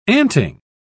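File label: "anting", British English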